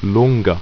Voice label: "longa", ido